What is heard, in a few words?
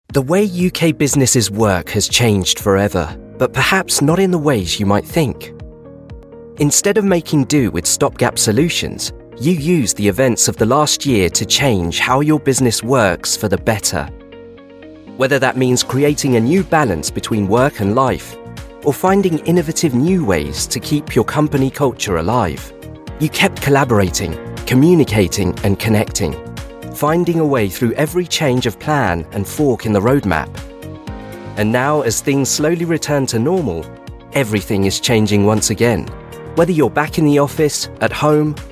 english male corporate, Young Friendly English Male Voice